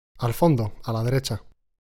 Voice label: conversation